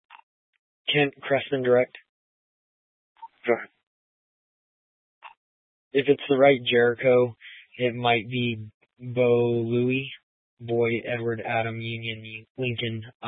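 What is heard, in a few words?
Police Dispatch, Scanner audio; Police 1 Dispatch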